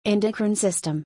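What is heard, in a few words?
"Endocrine System", English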